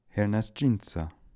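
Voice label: "Hernasdjinsa", pronunciations; Fourth Way; Beelzebub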